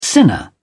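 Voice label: "sinner", British English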